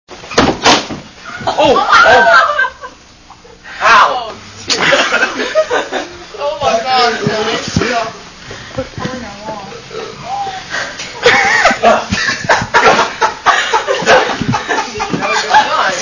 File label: uh oh